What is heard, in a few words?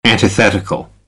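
"antithetical", American English; AmericanBritish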